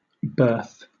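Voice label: "berth", British English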